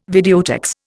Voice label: "videotex", Indian

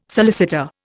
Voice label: "solicitor", Indian

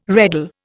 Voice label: "reddle", Indian

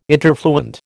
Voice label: "interfluent", American

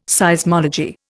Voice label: "Seismology", Indian

